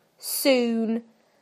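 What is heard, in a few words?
"soon", British English; PictureDictionary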